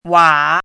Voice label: "wǎ", hanzi